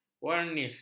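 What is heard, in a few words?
"varnis", Malay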